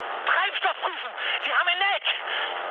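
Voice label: German tank damaged mood high, speech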